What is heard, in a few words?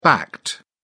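"backed", British English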